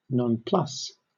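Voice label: "nonplus", British English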